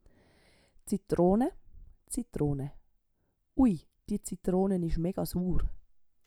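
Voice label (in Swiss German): "d Zitroone", Swiss German; Wortschatz Kapitel 8; Kapitel 8 Zusatzwortschatz 2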